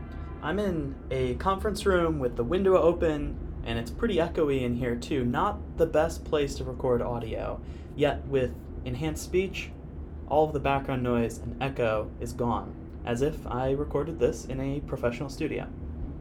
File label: sample unenhanced speech